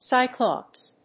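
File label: "Cyclops", English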